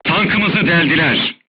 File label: voice message player tank hit, crew tanks turkish